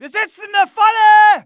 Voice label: fear, dialogue; mohaa